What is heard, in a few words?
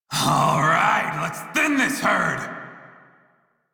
Crew Enemy Spot Male en; Dialog; Warframe; Railjack Crew; Red Veil